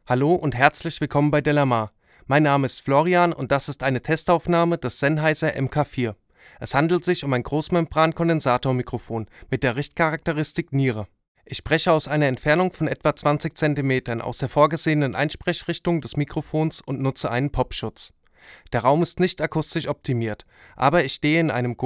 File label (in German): sprache m; sennheiser mk 4